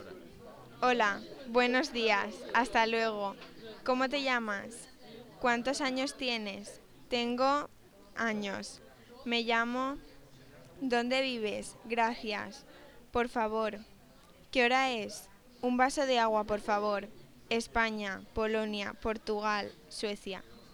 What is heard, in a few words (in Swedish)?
spanska; COMENIUS